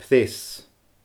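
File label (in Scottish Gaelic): "preas", Scottish Gaelic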